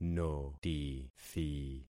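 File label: "notithi", concatenated syllables; Affixing experiment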